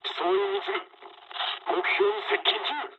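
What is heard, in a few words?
near waypoint mood med, speech japanese; War Thunder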